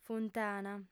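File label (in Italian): "funtana", lingua sarda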